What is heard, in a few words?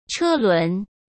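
"车轮", Mandarin Chinese; hsk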